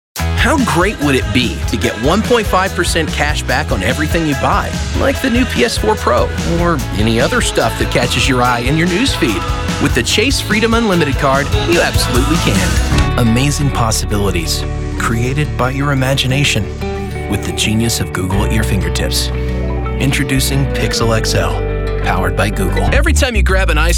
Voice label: Male Voice Over Talent Commercial